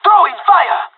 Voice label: molotov, pirate; Counter Strike Model Pack; CS:GO